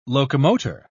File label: "locomotor", pronounce